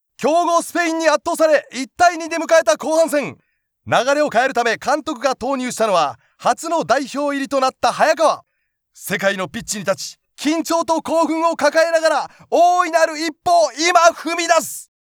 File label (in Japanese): スポーツ実況